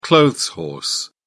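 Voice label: "clothes horse", British English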